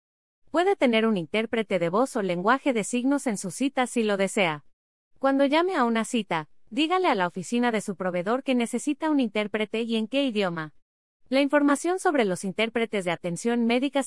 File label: spanish